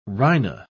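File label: "rhino-", British English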